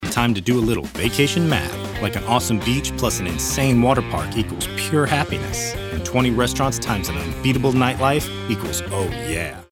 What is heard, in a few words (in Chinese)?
轻快语调